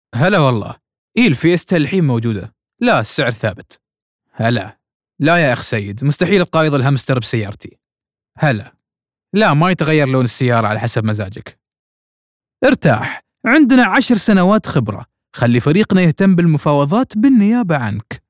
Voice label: Kuwaiti; Kuwaiti 02 – Sellanycar; Commercial